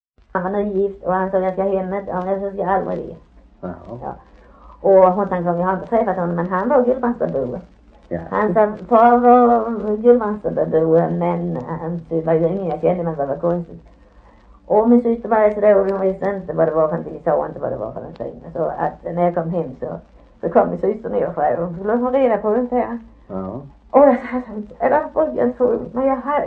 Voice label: Harplinge, dialekter; svenska sagor; Folkminnen

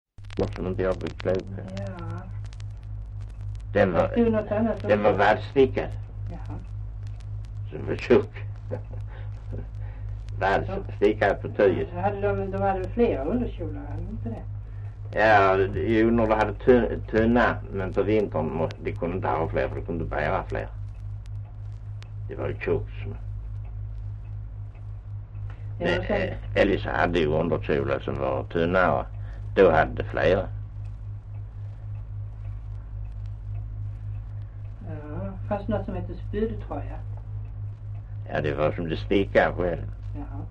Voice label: Östra Ingelstad, dialekter; Folkminnen

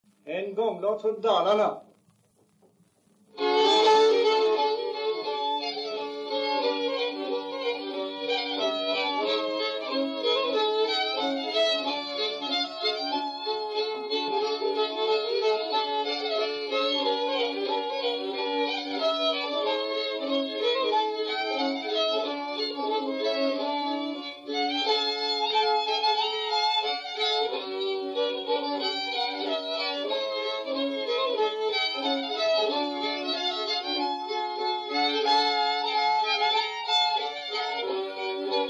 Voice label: dialekter; Folkminnen

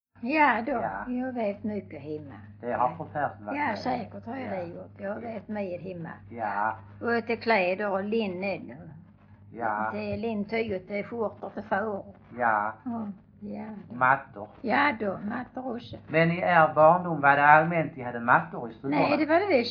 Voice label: Stehag Västra Sallerup SK, dialekter; Folkminnen

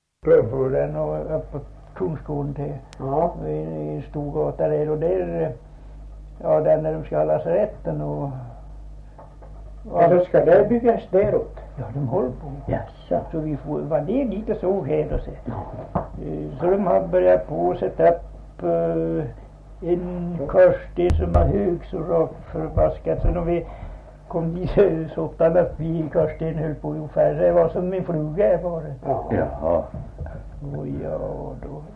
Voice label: Årsunda, dialekter; Svenska sagor; Folkminnen

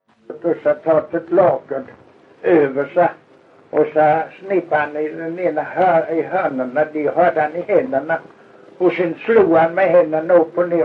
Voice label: Kristianopel BLEK, dialekter; Folkminnen

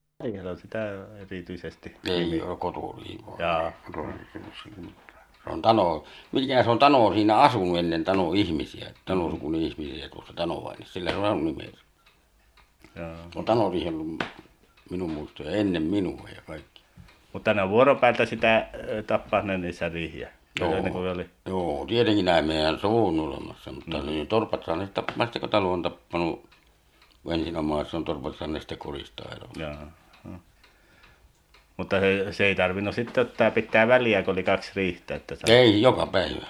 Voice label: dialekter; Folkminnen

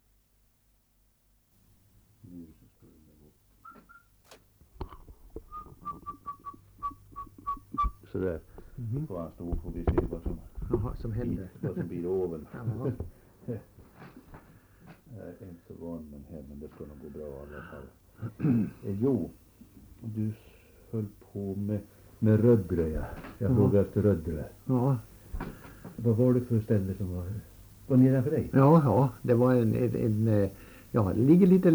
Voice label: Ovansjö, dialekter; Svenska sagor; Folkminnen

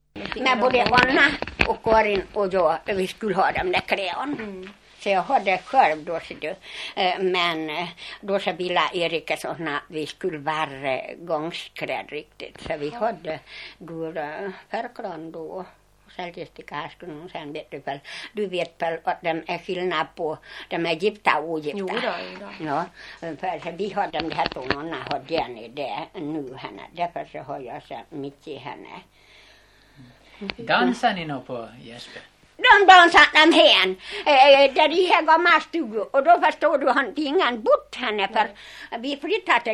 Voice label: Gagnef, dialekter; DAL; Folkminnen; Svenska sagor

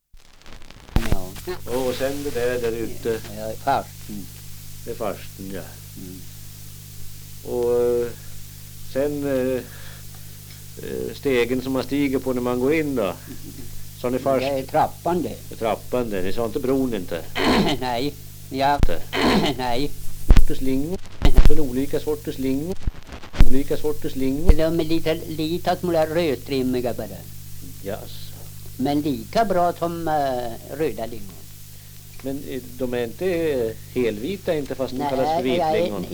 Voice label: dialekter; Folkminnen

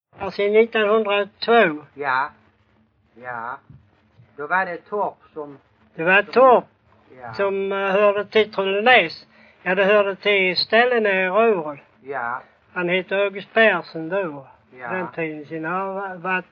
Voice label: Bosjökloster, dialekter; Folkminnen

